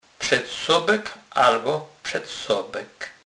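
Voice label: "przedsobek", Polish, Kurpie dialect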